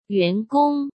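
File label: "员工", Mandarin Chinese; hsk; chinese-flashcards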